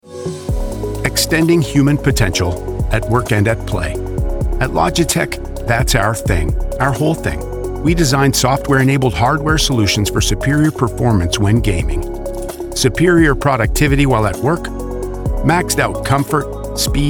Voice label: CORPORATE NARRATION